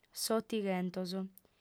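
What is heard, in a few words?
"s'otighentos", lingua sarda, female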